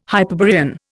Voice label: "Hyperborean", Indian